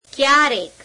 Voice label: gujarati sometimes; ક્યારેક [ke'yeāreek]; Adverbs